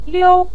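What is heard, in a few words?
"liu", Mandarin Chinese neutral tone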